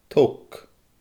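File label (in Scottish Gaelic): "tog", Scottish Gaelic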